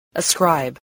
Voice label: "ascribe", English